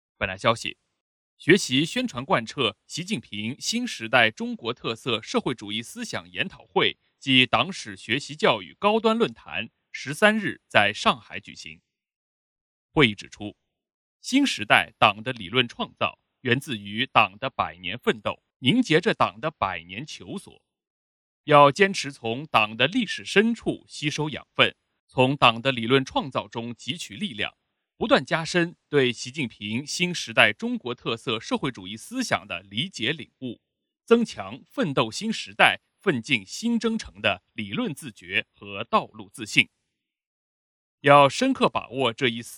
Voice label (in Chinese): 新闻联播 片段